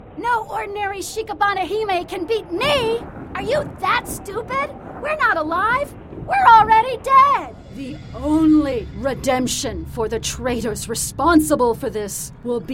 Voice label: character

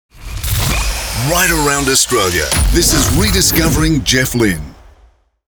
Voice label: Sweeper; Right Around Australia

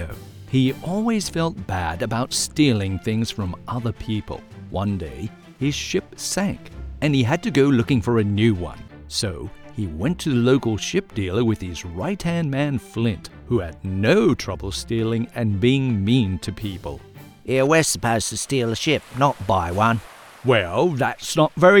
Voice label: Kids Pirate Story

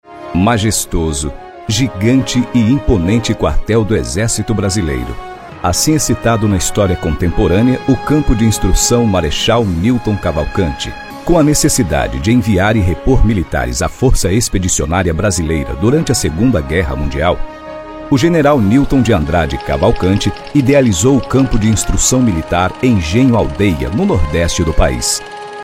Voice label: INSTITUCIONAL; EXERCITO BRASILEIRO

